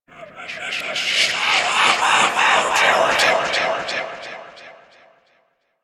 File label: Stalker Assassin Whispering